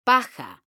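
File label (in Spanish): "PAJA" Spanish, female; mekanta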